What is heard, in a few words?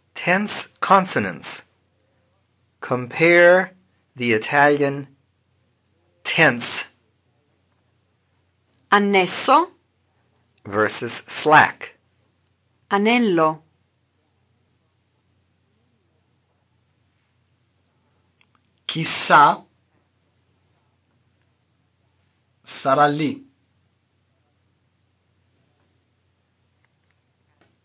Tense Consonants, Italian; FAST